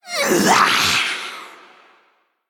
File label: Female Drakkari Troll Attack